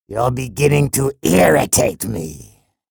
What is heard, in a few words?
chatter negative; respawn; sorlag